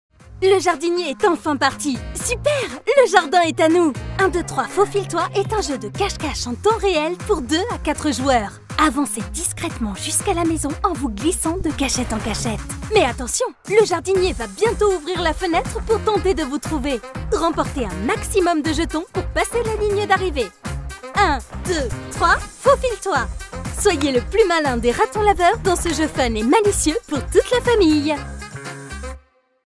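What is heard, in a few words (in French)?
Teaser, comédienne voix-off; Ravensburger; Carbone Cafe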